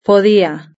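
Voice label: "podía" Spanish; verb-conjugator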